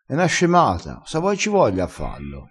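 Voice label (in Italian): "scemata", dialettale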